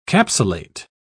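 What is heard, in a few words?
"capsulate", English, British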